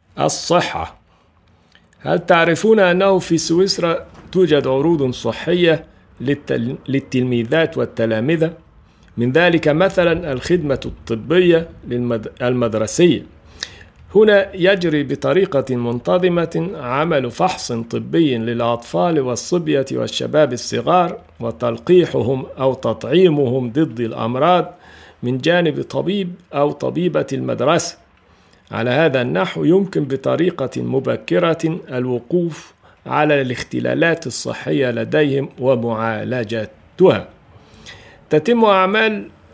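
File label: Arabic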